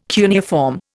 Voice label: "cuneiform", Indian

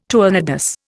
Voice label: "tournedos", Indian

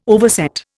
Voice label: "overset", Indian

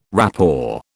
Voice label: "rapport", British

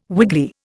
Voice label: "wiggly", Indian

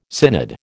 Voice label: "synod", American

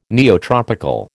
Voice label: "neotropical", American

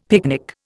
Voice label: "picnic", Indian; Dictionary

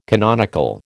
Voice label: "canonical", American

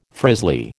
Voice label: "frizzly", American

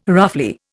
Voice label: "roughly", Indian

